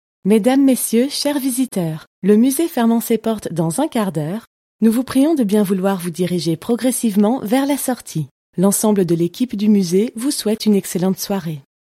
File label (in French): Annonce fermeture musée